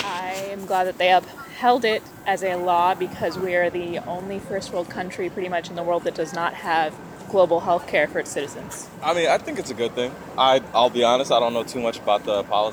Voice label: Voxpop